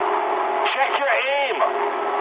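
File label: English player friendly fire mood medium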